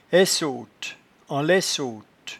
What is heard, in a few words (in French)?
"aissote", patois jura